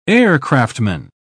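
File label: "aircraftman", British English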